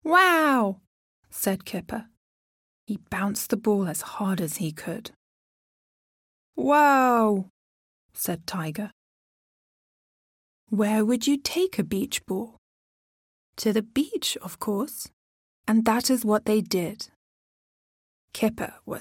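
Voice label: English UK Child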